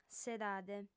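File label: "s'edade", female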